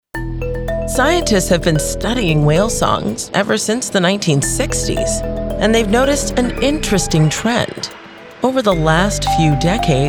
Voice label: Documentary